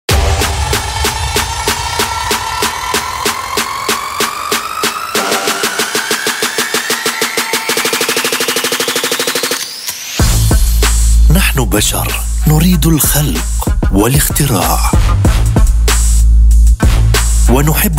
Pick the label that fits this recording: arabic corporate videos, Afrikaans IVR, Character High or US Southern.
arabic corporate videos